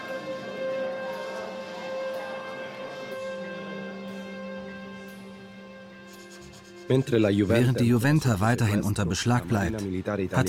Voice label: Film Voice Over; IUVENTA